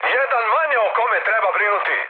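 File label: Serbian player killed enemy mood high, speech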